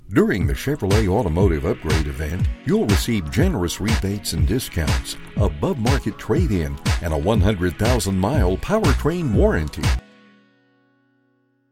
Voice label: 外籍英男 动感广告 西部牛仔风

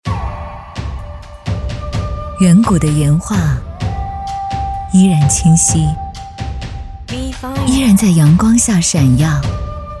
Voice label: 女 文旅片 文旅形象片; 随县文旅形象片

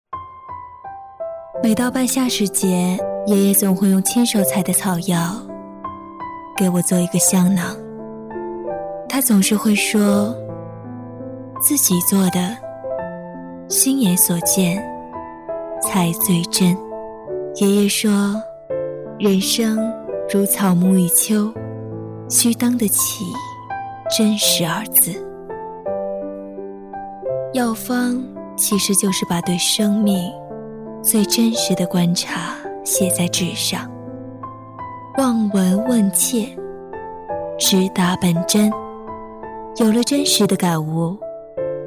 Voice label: 女 旁白 哀伤走心

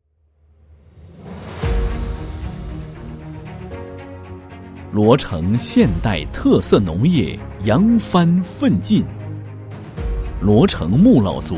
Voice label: 男 宣传片 浑厚大气 宣传片解说词; 中国毛葡萄; 仫佬.罗城

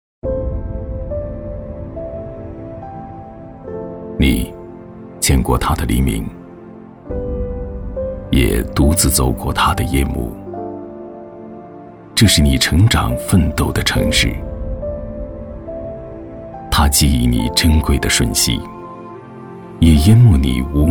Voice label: 男 叙述独白城市

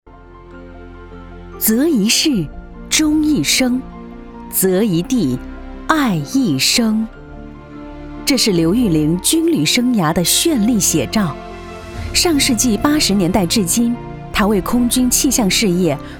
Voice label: 女 专题人物类 端庄大气

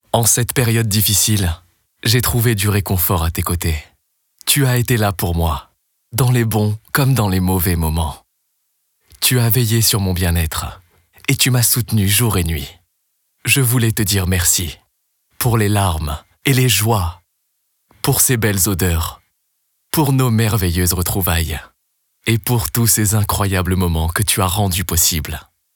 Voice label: 旁白

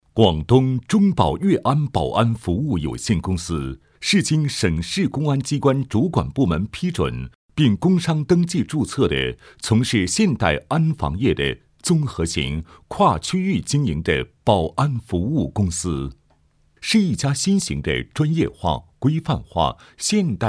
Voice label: 男 专题 公司介绍

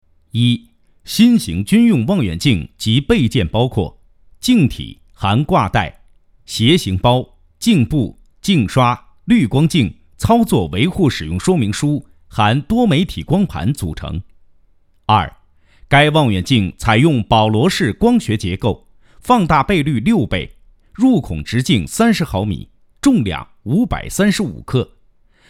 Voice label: 男,课件解说类; 军用望远镜

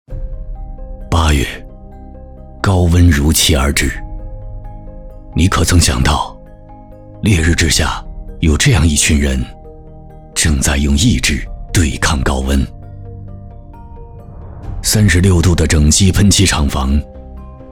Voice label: 男 宣传 励志 走心; 铄石流金

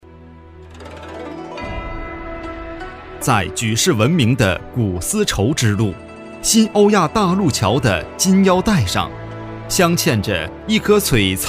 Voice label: 男 宣传片 城市旅游; 中卫宣传

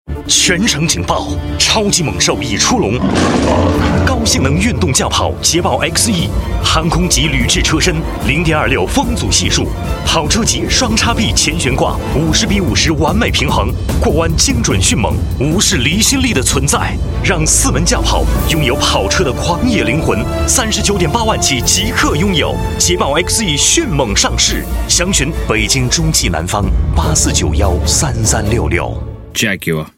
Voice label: 男 汽车广告 Radio, 国语男声配音

